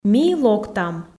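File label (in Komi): Komi, ми локтам, female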